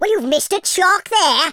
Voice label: missed, Welsh; speech